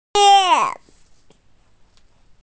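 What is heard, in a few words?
"da3", Mandarin Chinese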